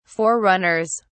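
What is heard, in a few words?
"forerunners", British English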